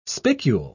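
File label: "spicule", British English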